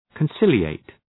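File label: "conciliate", English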